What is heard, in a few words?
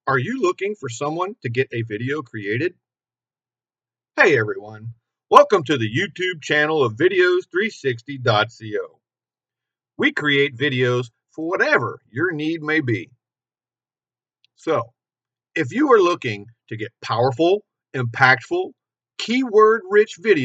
Explainer voiceover